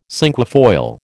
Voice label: "cinquefoil", American